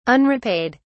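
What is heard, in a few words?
"unrepaid", British English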